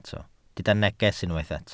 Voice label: "neges", Welsh

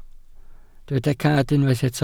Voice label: "cad", welsh

